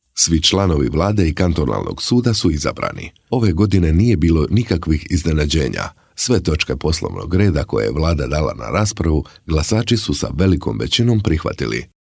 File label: Croatian documentary